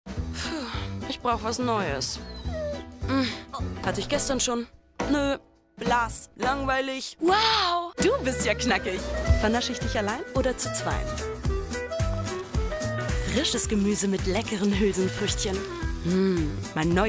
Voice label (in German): TV Spot; Veggie Love